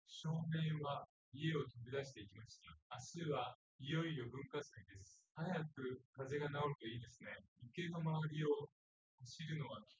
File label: male; SiSEC10; underdetermined